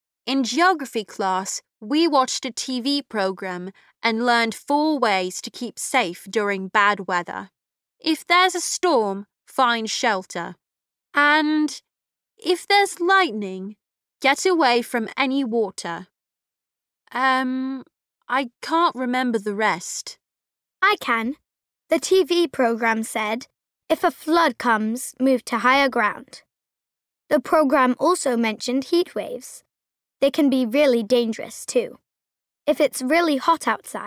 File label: ESL Primary British